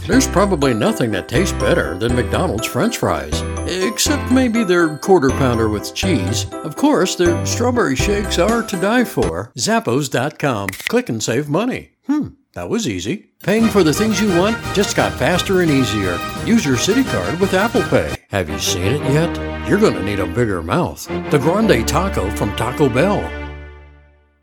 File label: Commercial